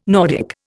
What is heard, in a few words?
"Nordic", Indian